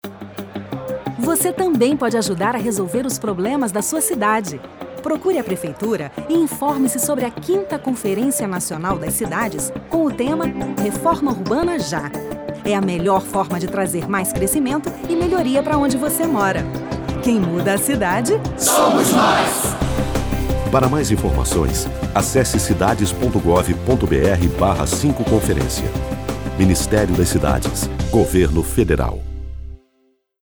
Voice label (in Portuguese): Spot radio; amavi; planejamento territorial